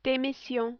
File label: "demission", French